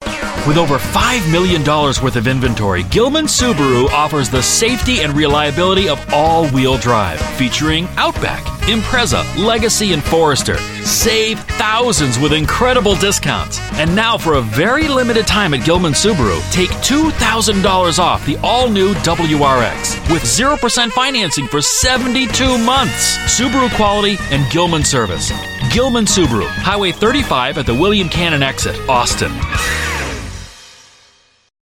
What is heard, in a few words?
US English Automotive